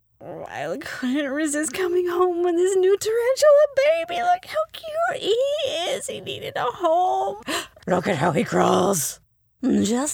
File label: cartoon